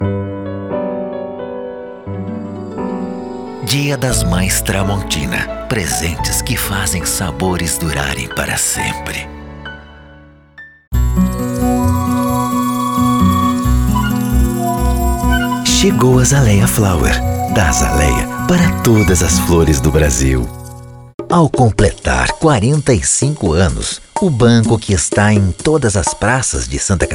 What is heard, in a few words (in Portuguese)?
locucao ator